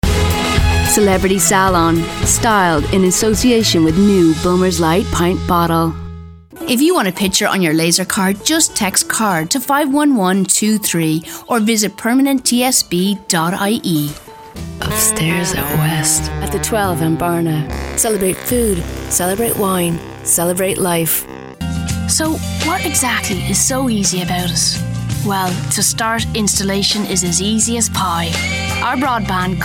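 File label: English Irish, advert, Irish; Werbung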